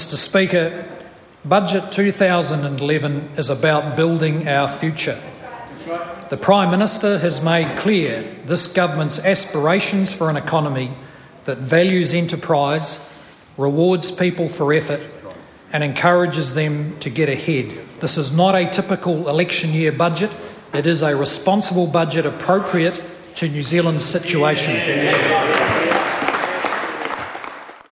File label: english